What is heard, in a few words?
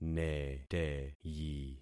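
"neteyi", concatenated syllables; Affixing experiment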